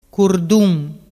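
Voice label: "curdun", Vernazzese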